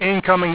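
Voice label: "incoming", english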